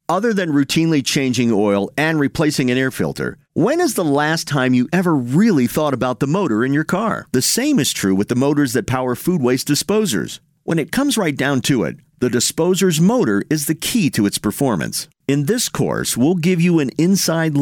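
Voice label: eLearning Male, Creative, Fun, Friendly; Narration, eLearning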